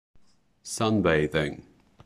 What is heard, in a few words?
"sunbathing", English